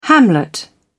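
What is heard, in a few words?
"hamlet", British English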